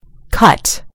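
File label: "cut", British English; gcompris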